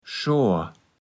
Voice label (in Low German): "Schoar", platt